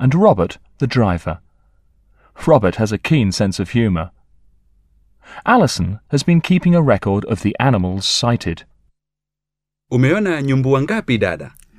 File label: In the Game Park Dialog, Swahili; Teach yourself Swahili audio